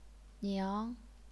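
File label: "niang", second tone, chinese pinyin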